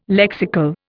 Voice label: "lexical", Indian